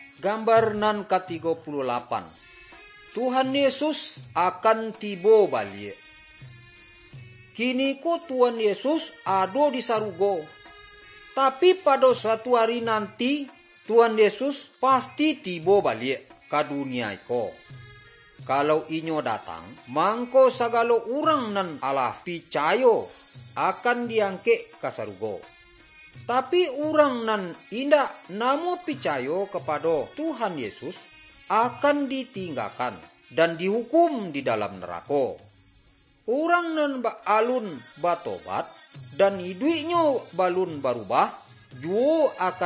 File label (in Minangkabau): good news minangkabau